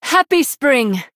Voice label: Female Human Greetings; en